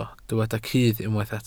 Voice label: "cudd", Welsh